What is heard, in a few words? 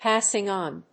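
"passing-on", English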